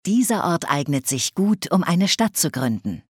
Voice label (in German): Speech, German, Advisors; Civ5; Build City Short